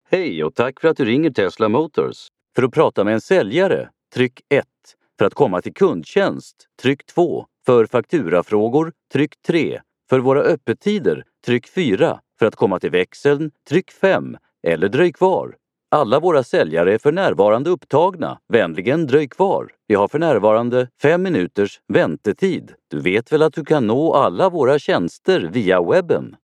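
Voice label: swedish interactive voice response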